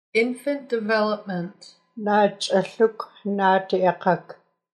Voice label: Infant Development, Nuu-chah-nulth